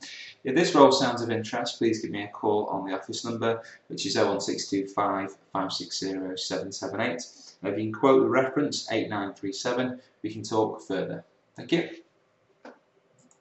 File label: commercial